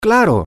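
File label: of course, Spanish, male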